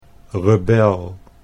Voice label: "rebel", Dutch